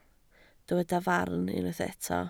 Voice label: "farn", Welsh